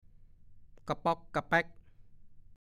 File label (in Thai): "กะป๊อกกะแป๊ก", ภาษาโคราช